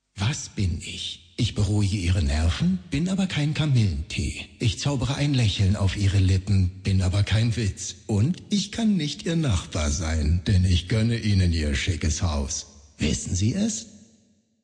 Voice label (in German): Werbung